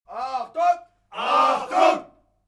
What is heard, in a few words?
military shout german attention